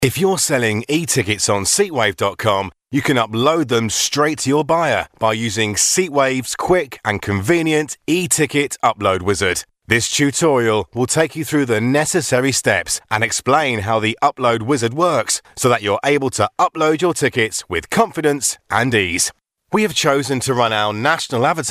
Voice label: Corporate

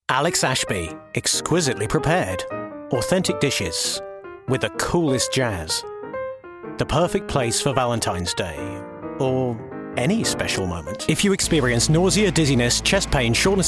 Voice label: commercial

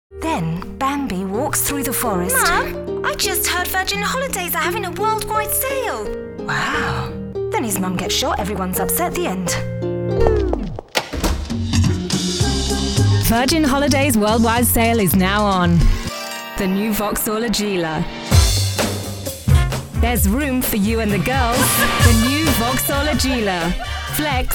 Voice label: COMMERCIAL mono

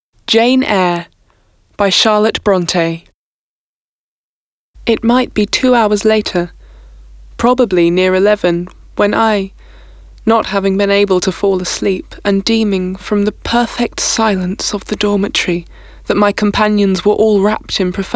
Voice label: Narration

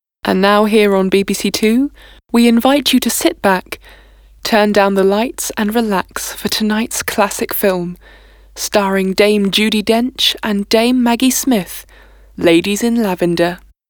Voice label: Continuity

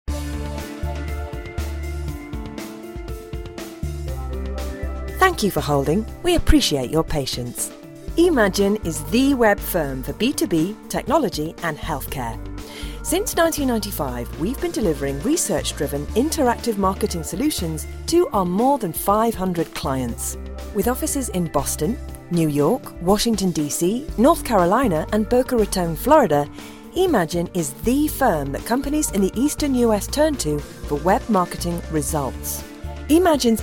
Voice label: IVR

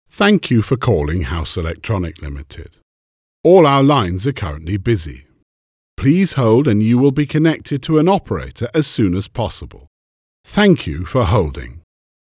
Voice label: On Hold Msg

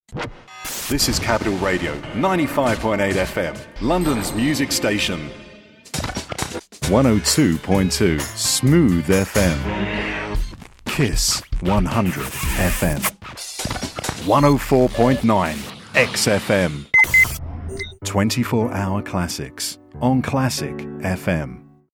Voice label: Radio Idents

